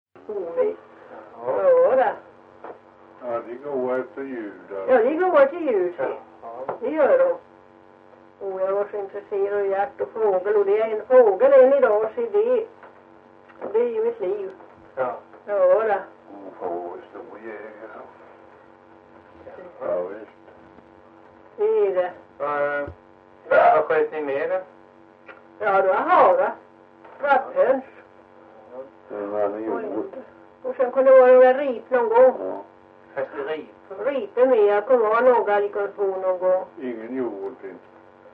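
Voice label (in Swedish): Ventlinge Öland, dialekter; Folkminnen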